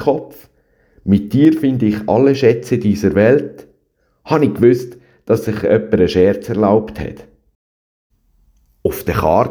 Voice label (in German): Posten Flasche mit Jingle